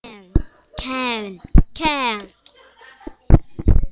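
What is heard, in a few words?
"can", spoken word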